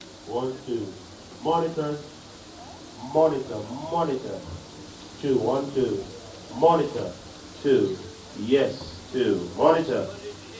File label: Sound Check Microphone